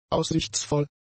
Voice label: "aussichtsvoll", German; adjectives

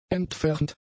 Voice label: "entfernt", German; adjectives

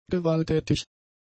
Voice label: "gewalttätig", German; adjectives

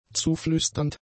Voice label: "zuflüsternd", German; adjectives

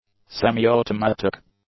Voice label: "semi-automatic", English; adjectives

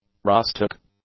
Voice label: "rustic", English; adjectives

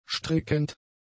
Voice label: "strickend", German; adjectives

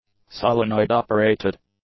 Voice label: "solenoid-operated", english; adjectives

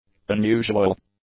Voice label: "unusual", english; adjectives

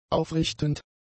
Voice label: "aufrichtend", German; adjectives

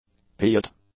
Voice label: "peewit", English

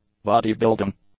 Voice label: "body-building", English; adjectives

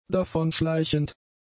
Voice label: "davonschleichend", German; adjectives

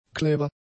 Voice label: "clever", German; adjectives

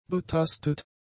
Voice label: "betastet", German; adjectives

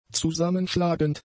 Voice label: "zusammenschlagend", German; adjectives

